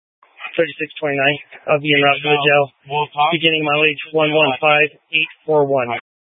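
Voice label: Police Dispatch, Scanner audio; Police 1 Dispatch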